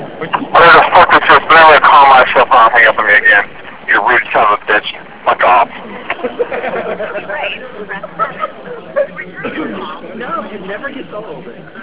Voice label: Voice Mail